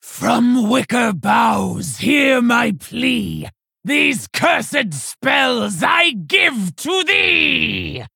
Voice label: Female Orc Play